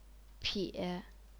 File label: "pie3", chinese pinyin